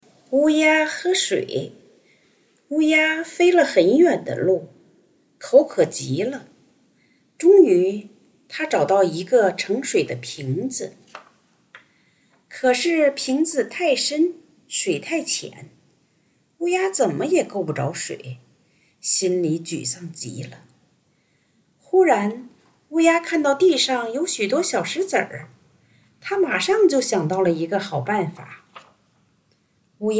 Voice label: 儿童故事; 乌鸦喝水

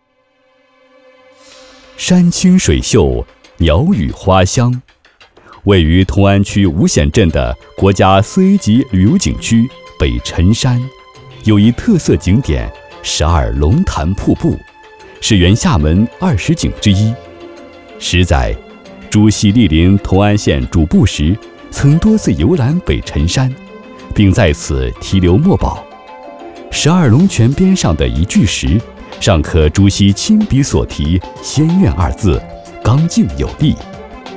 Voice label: 磁性温暖旅游专题

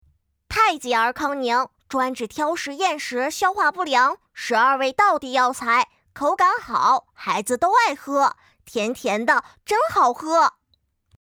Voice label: 奶气男童; 太极儿康宁

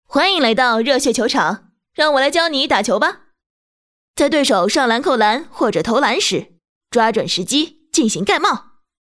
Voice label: 御姐爽朗飒气

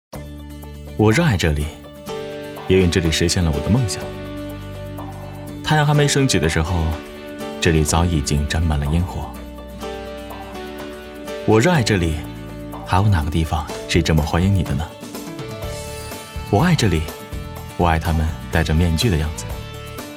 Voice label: 宣传; 去热爱吧不顾一切

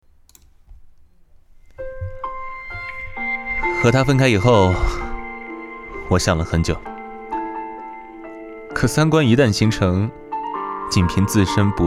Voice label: 角色配音情感

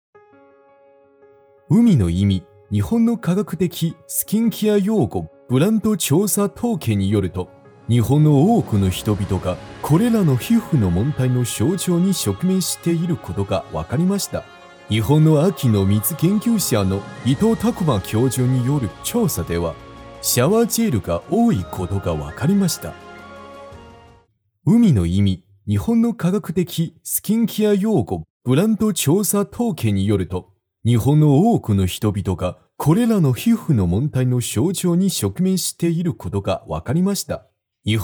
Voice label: 日语

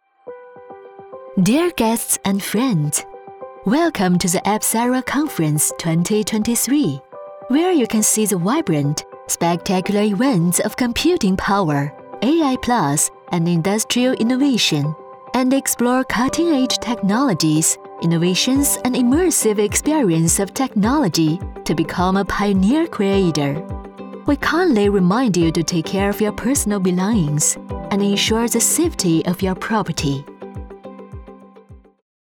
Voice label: 英文年轻科技大会播报

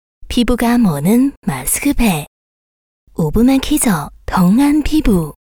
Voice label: 韩语

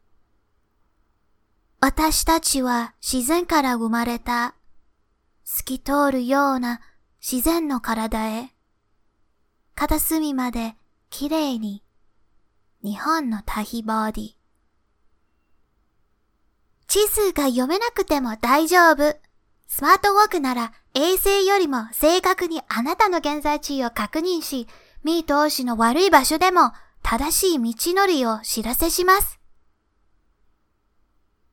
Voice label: 广告

